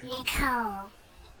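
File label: "nicole", US English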